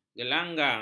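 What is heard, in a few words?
"gelanggang", Malay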